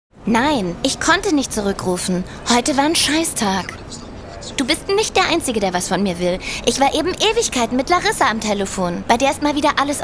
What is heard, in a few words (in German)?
Sprecherin Figur, Hörspiel; Demo; Scheißtag